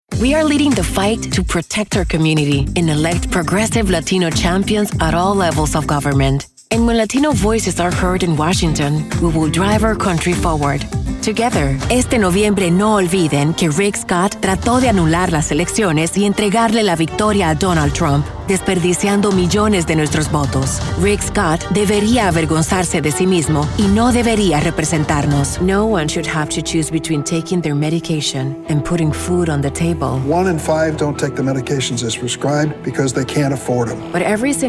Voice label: Bilingual